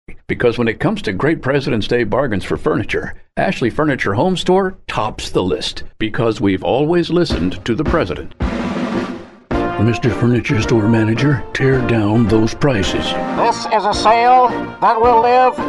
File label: vo spot